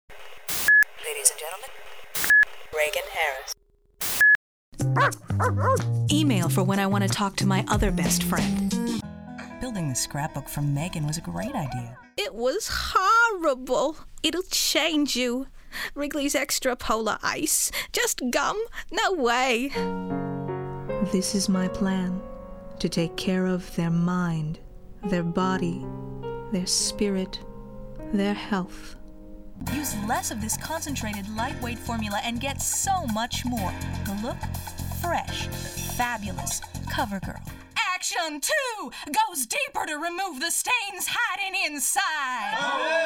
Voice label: Commercial